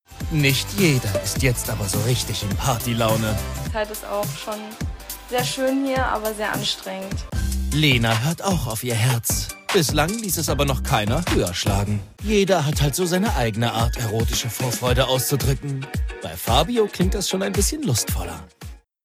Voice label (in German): Kommentar, maenner; Dating Naked